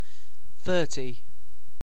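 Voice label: "thirty", British; pronunciations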